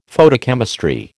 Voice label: "Photochemistry", American

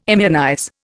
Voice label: "immunize", Indian

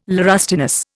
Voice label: "laurustinus", Indian

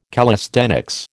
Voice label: "callisthenics", American

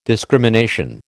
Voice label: "discrimination", American

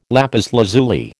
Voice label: "lapis lazuli", American

